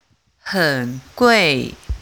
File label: chinese pronunciation ui iu